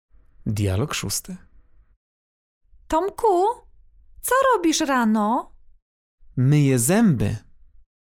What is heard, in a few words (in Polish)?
dialog